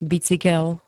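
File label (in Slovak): "bicykel", slovenské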